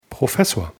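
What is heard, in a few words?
"Professor", German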